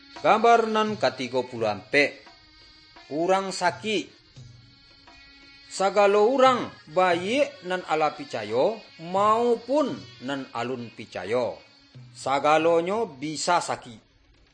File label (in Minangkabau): good news minangkabau